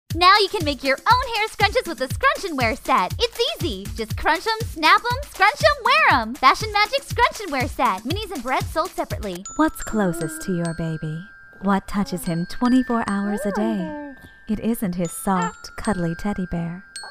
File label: commercial